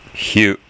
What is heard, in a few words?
"hyu", hiragana